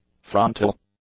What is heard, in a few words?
"frontal", English; adjectives